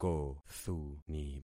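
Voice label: "kothuni", concatenated syllables; Affixing experiment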